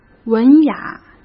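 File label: "文雅", Mandarin Chinese; chinese flashcards; hsk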